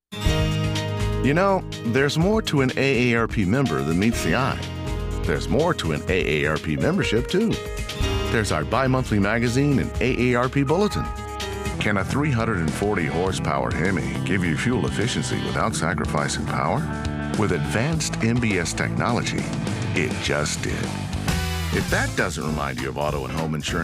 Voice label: commercial